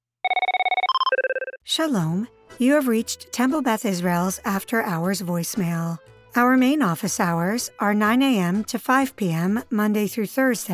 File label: telephony